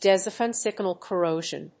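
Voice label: "DEZINCIFICATION CORROSION", English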